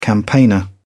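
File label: "campaigner", British English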